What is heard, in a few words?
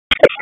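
Raleigh Police North District Tactical, p25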